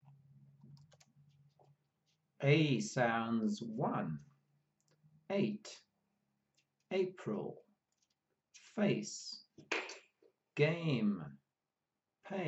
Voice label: Ei sounds, pronunciation of australian english

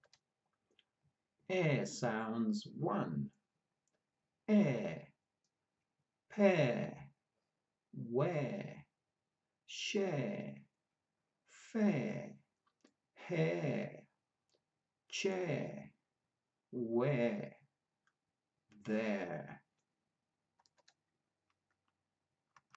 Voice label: Ere sounds, pronunciation of australian english

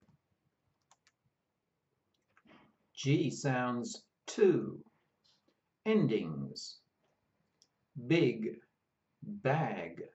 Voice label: G sounds, pronunciation of australian english

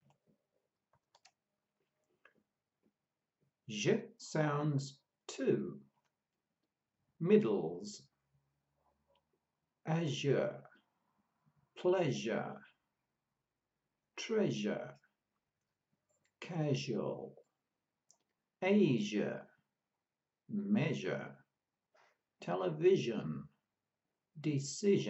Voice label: Zh sounds, pronunciation of australian english

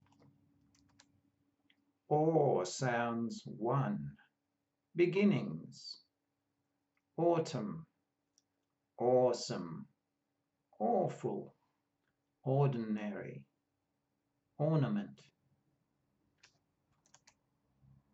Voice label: Or sounds, pronunciation of australian english

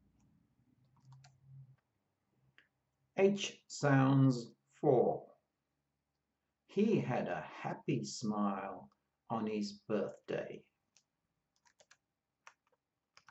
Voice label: H sounds, pronunciation of australian english

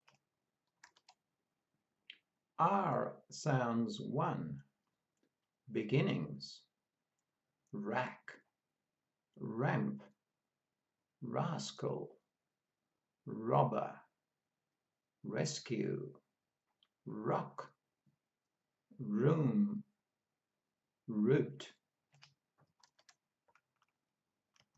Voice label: R sounds, pronunciation of australian english

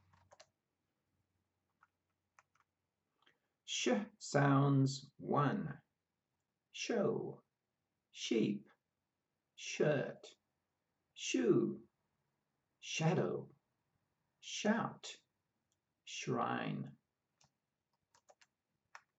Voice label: SH sounds, pronunciation of australian english

